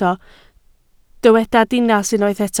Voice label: "dinas", Welsh

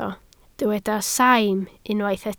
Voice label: "saim", Welsh

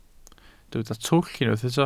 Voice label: "twll", Welsh

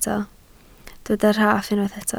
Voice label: "rhaff", Welsh

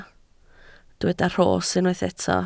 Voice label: "rhos", Welsh

